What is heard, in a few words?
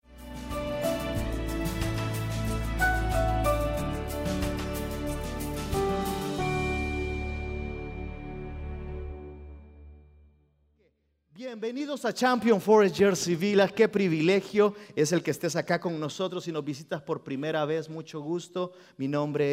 Spanish Sermon